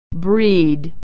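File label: "breed", American English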